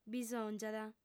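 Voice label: "bisòngiat", female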